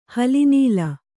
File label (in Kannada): "ಹಲಿನೀಲ", Kannada